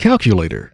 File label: Calculator, computer voice